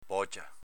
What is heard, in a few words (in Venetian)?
"bocia", Veneto